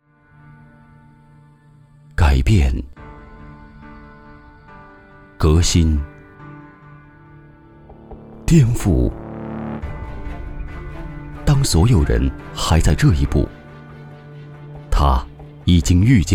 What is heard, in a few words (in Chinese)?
男, 广告, 品质感; 奔驰S级